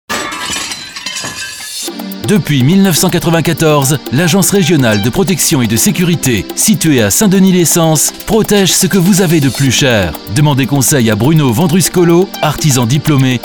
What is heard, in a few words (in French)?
Spot Radio